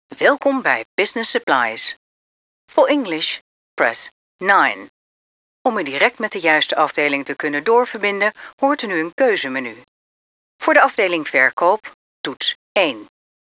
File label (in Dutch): Voice response systeeem